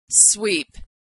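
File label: "sweep", American English; verb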